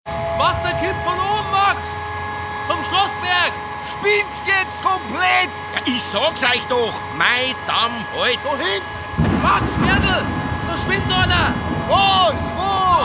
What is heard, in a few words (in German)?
Dialekt, Bayerisch